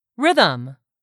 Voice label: "rhythm", English; 3000 words

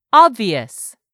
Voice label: "OBVIOUS", English; 3000 words

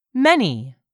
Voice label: "many", English; 3000 words

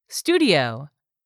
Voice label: "studio", English; 3000 words

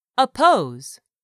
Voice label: "oppose", English; 3000 words

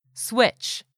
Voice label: "switch", English; 3000 words

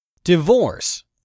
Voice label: "DIVORCE", english; 3000 words

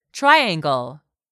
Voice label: "TRIANGLE", English; 3000 words

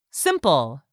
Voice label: "SIMPLE", english; 3000 words

